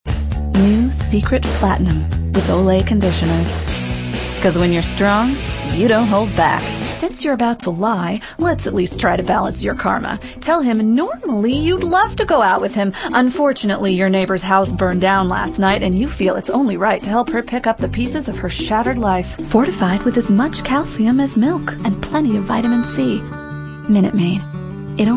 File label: female, late 20s mid 30s contemp